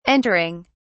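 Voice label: "entering", British English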